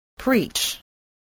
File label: "preach", voice, spelling